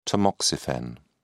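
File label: "tamoxifen", British English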